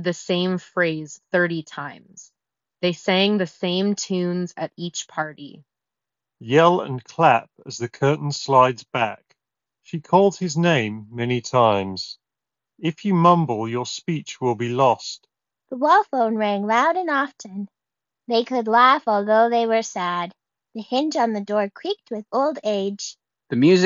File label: Jabra Elite 10 Ideal microphone sample